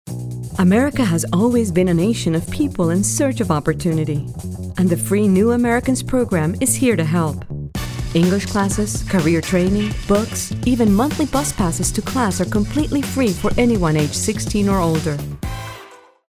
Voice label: Anncr